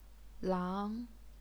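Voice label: "lang", Chinese, second tone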